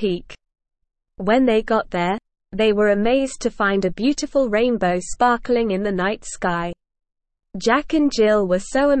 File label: ESL Short Stories for Kids SLOW reading The Magical Mountain